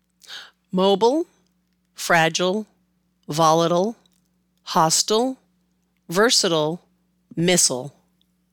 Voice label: Mobile to Missile, american english

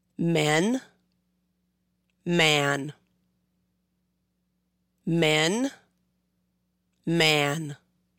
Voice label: Men Man, American English; long and short vowels in American English

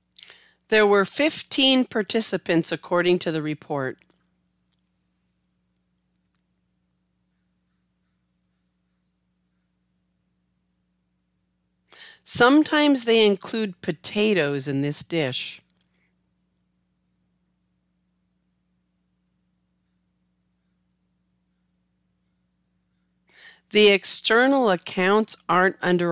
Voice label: plosives; plosive pronunciation

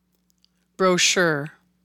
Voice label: "Brochure", american english

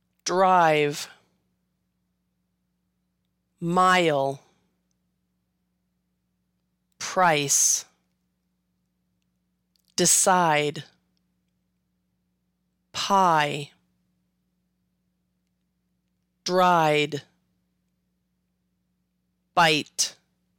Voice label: Long I, long and short vowels in american english

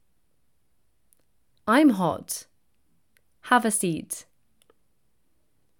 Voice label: Brit Im Hot Have a Seat, british english

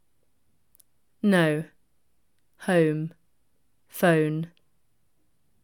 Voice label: Brit No Home Phone, british english

